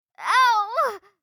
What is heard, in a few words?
anime ouch